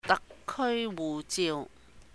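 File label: "Dahk Kēui wuh jiu", Cantonese; HKSAR passport; 特區護照; holidays and festivals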